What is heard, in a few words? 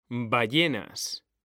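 "ballenas", Spanish, male; mekanta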